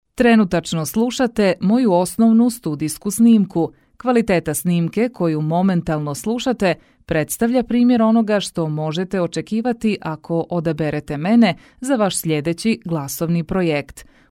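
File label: croatian female narration, voice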